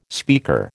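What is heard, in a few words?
"speaker", American English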